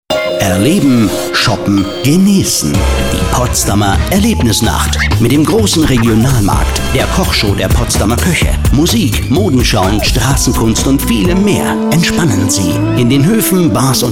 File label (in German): Radio Trailer